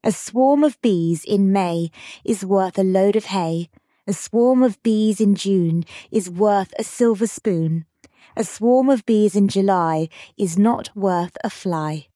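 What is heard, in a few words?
female British; A Swarm of Bees in May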